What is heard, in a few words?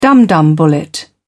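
"dumdum bullet", British English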